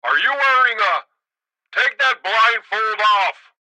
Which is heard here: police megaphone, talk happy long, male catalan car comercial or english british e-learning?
police megaphone